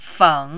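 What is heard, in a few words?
"feng", third tone, Mandarin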